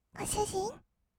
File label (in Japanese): ご主人, kawaii voice; ASMR; 二人称